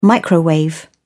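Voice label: "microwave", British English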